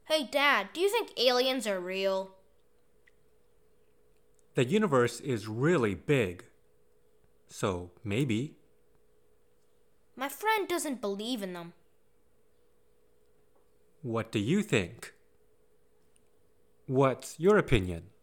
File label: aliens dialog